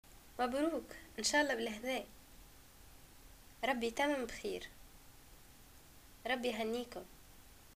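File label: greeting marriage, Tunisian Arabic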